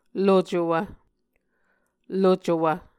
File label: "locowv", Muscogee